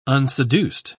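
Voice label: "unseduced", British English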